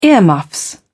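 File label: "earmuffs", British English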